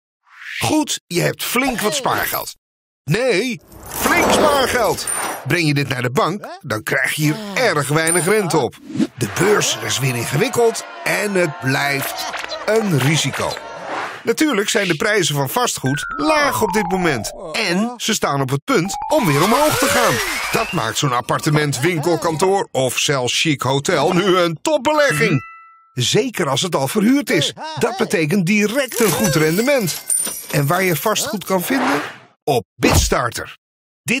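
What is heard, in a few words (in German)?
Dutch Dynamisch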